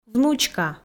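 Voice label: "внучка", Komi, female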